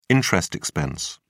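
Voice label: "interest expense", English, British English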